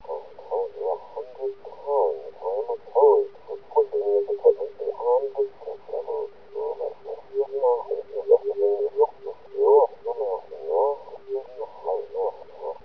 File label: mumble